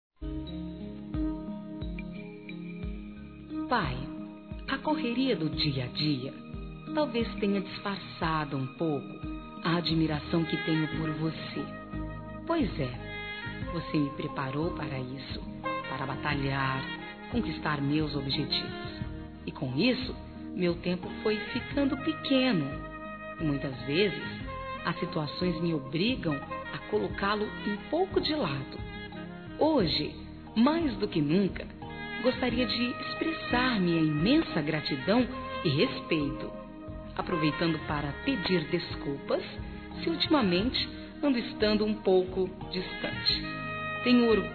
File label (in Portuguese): ANIVERSÁRIO PAI F, Telemensagens, Fem; Aniversários; Aniv Familiar